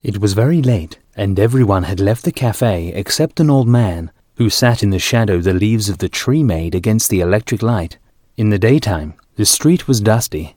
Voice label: Audiobook